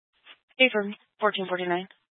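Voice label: Sheriff Dispatch, Scanner audio recording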